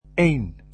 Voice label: "one", Dutch

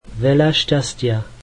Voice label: Good luck, slovak

